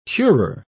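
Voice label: "curer", British English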